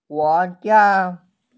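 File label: "guojia", Mandarin Chinese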